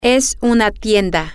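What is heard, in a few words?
Spanish, female; it Is A Store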